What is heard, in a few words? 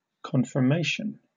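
"conformation", British English; pronunciations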